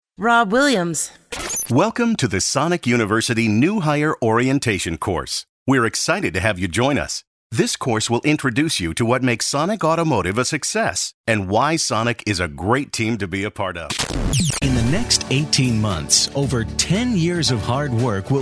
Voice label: eLearning Non Broadcast

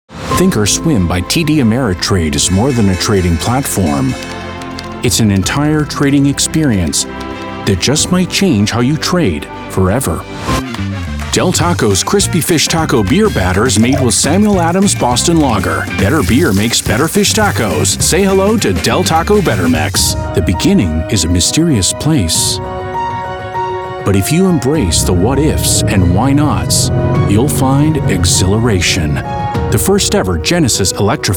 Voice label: VO Commercial

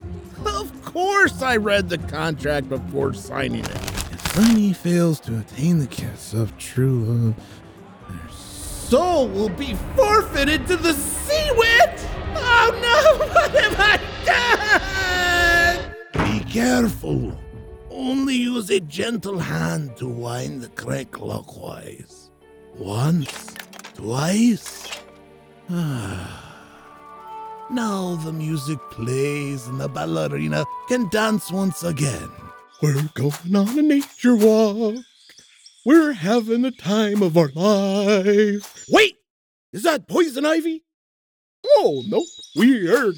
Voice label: Character

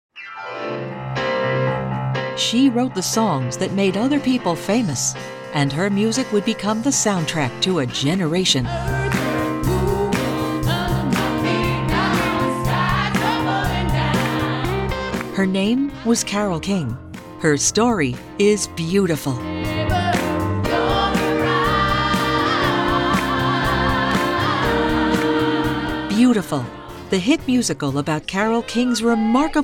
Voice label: PROMO

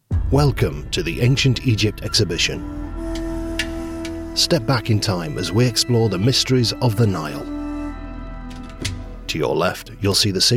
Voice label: MUSEUM AUDIOGUIDE - British (Male)

